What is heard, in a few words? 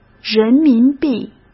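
"人民币", Mandarin Chinese; chinese-flashcards; hsk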